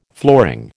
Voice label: "flooring", American